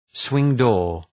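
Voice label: "swing door" English; {,swıŋ’dɔ:r}; derë rrotulluese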